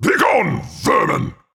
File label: voice, taunt; kosmyr